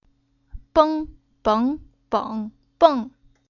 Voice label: "Beng", Chinese; Уроки фонетического блока

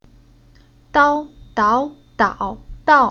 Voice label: "Dao", Chinese

